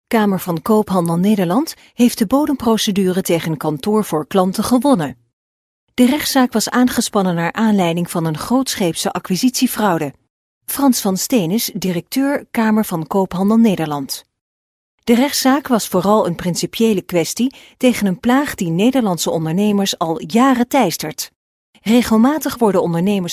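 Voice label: Dutch, female, corporate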